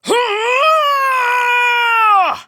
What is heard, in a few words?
Attack Prime Grineer Female; Tau; Battle Barks